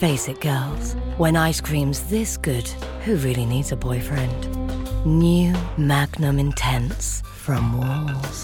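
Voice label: Sultry, Husky, English; Walls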